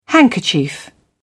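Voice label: "handkerchief", British English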